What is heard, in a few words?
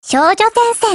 TITLE CALL, JP; ChauchatM1915